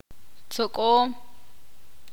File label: ixil; cortar